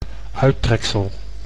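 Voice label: "uittreksel", Dutch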